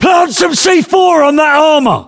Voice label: voiceovers, US; english